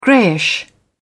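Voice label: "greyish", British English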